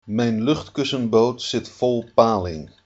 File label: hovercraft, dutch